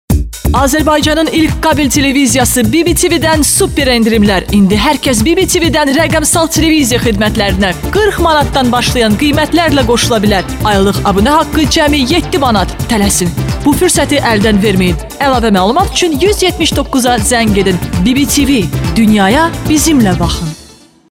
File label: Azerbaijani female; dictor; dictor-sounds